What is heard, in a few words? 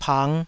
"pang5", taiwanese